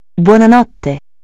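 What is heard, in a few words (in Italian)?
"buonanotte", Italian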